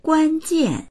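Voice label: "guan1jian4", Mandarin Chinese; 关键; カギ（物事の）、キーポイント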